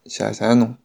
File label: you're welcome shanghainese